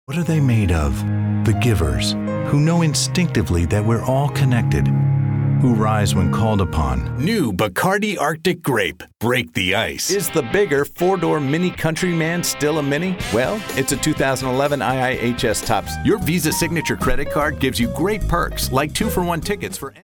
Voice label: VO Elevator Demo